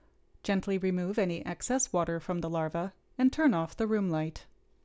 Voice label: Gong Voiceover